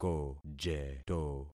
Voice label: "kojeto", concat syllables; Affixing experiment